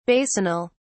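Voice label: "basinal", British English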